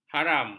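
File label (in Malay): "haram", Malay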